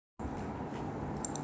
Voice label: voice message